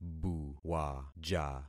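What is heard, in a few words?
"buwaja", concatenated syllables; Affixing experiment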